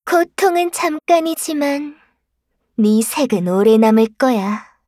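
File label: kill Player, Voice Line, Korean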